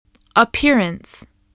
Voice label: "appearance", English